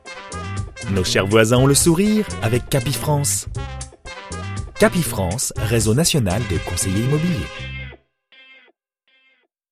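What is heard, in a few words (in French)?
medium souriant, voix off masculine; Je peux adopter tous les styles avec ma voix off masculine naturelle, posée, souriante ou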